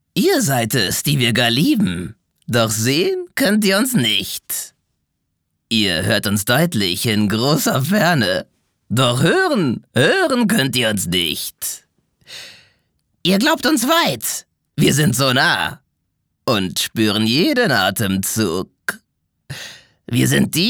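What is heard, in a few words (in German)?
charge, Männer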